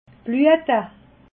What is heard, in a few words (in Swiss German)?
"bluete", alsace